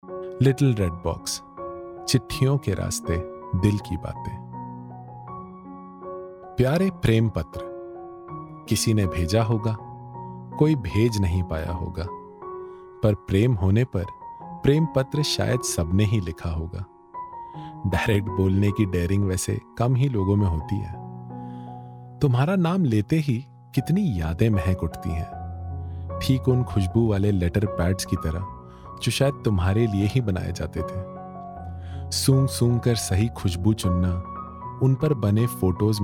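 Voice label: audiobook hindi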